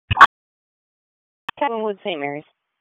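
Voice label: Police District Dispatch, p25; wake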